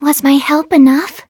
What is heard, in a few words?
victory, en; cookie run